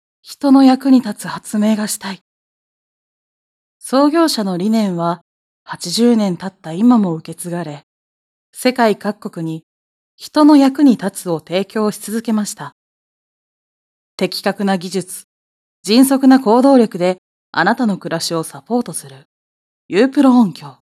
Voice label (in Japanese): ストレート ナレーション